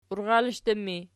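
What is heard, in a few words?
"urralishdu" ergative, Archi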